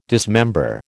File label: "dismember", American